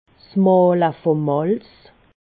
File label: "mahle", alsace